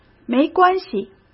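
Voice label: "没关系", Mandarin Chinese; hsk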